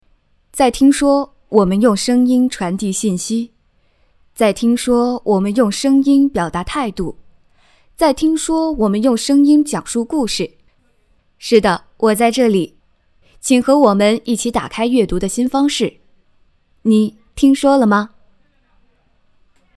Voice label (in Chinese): 讲述类